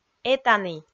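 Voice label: "этані", Komi, female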